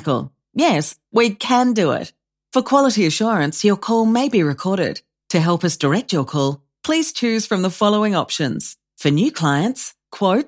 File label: Phone Welcome Greeting, ivr recordings